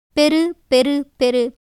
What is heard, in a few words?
Tamil